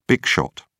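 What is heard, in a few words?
"big shot", English, British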